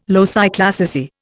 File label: "loci classici", Indian